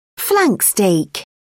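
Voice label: "flank steak", British English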